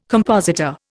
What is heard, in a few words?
"compositor", Indian